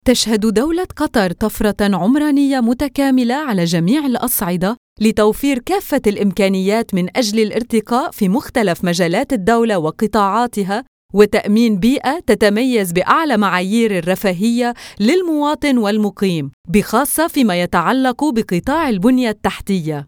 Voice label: arabic narration

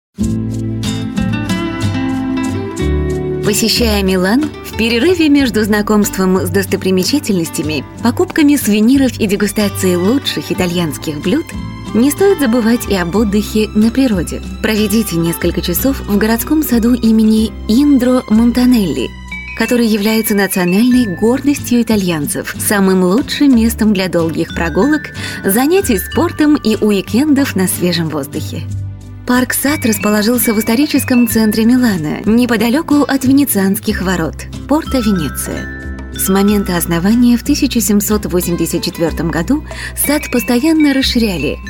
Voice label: russian audiobooks

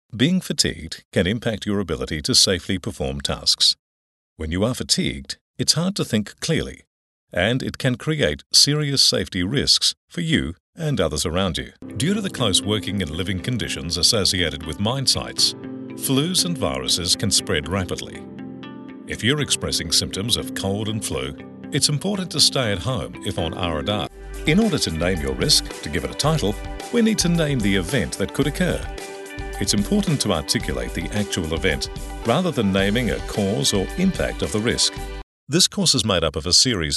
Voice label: english australian e-learning

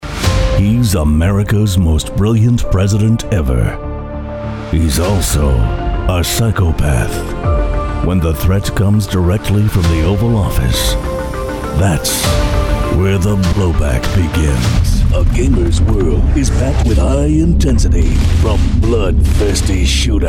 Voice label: english american movie trailers

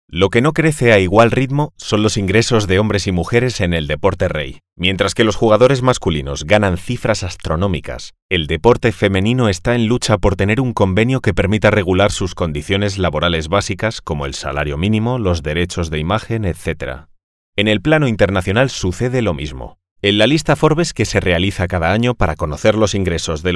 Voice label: spanish castilian podcasts

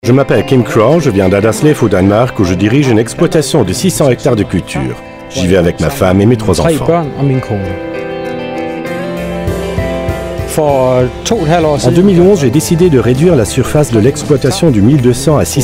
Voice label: French

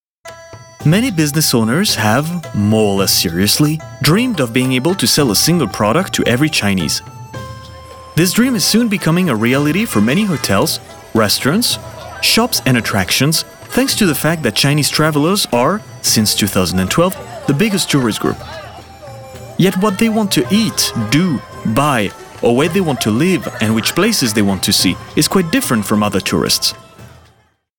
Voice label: english narration

